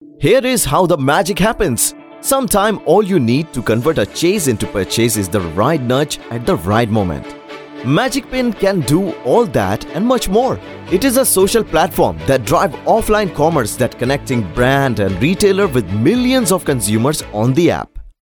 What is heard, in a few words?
English Indian Accent Male EXPLAINER